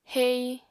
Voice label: "hei", Apatani

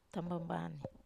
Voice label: "tamambanei", Wamesa

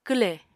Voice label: "kwlè", Apatani

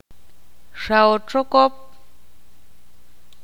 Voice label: ixil; cazar

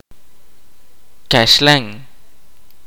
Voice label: kaqchikel; non indigenous woman

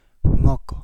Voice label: Kapingamarangi; mosquito eggs

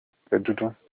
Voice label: charcoal, Olukumi

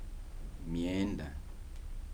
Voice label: "clothes", Kibembe

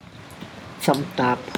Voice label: "nineteen", tlacochahuaya

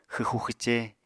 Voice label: "xwxu xwce", Apatani

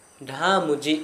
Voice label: small seed, Gta, Dialect: Hill; ɖhã mudʒi

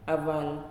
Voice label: "House", Nalik

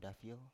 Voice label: "dafio", Biak

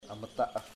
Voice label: finger, chamacoco

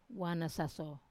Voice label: "wana saso" storm, Wamesa

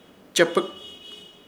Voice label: "Chepɨk", Ishir Chamacoco; mitad

